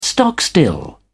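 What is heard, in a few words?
"stock still", English, British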